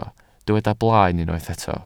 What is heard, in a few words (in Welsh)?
"blaen", Welsh